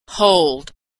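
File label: "hold", American English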